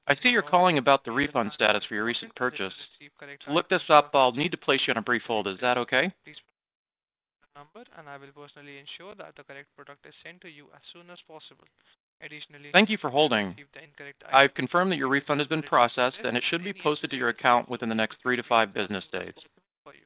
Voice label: Background speech; Sanas